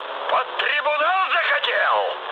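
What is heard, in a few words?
player killed ally, mood high, Russian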